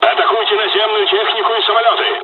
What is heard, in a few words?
zero, dialogs russian; second wave; War Thunder